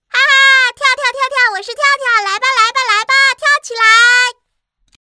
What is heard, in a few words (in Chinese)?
女童; 声展女童3跳跳